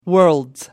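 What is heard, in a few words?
"worlds", British English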